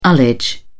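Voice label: "ullage", British English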